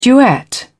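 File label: "duet", British English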